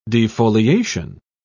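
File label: "defoliation", British English, dictionary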